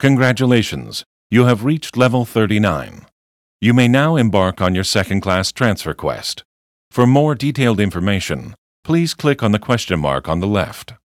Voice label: tutorial voice; lineage2

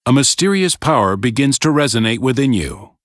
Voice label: tutorial voice; lineage2

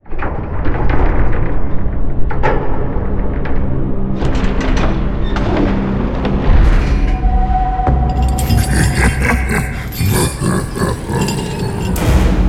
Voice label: cinematic voice; lineage2

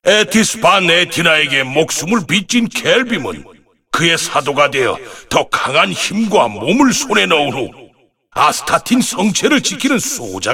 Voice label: cinematic voice; lineage2

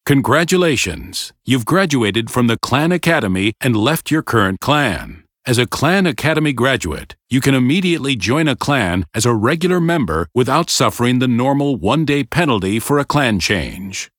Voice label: tutorial voice; lineage2

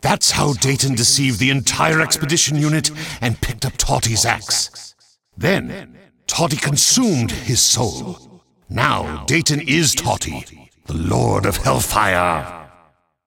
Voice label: cinematic voice; lineage2

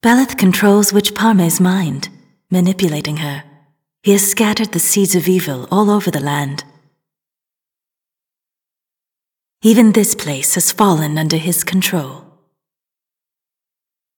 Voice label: cinematic voice; lineage2

